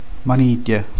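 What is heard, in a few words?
"maniglia", Italian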